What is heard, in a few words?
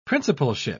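"principalship", British English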